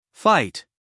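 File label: "fight", American English, male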